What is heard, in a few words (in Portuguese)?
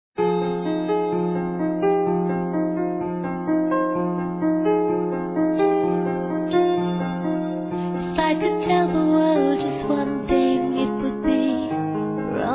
Ex Namorada Masculino; Aniversários; ANIVERSARIO romantico